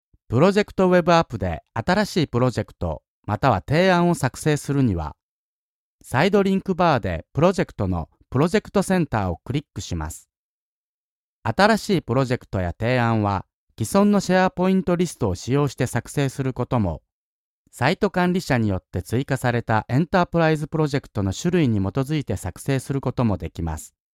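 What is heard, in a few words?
Japanese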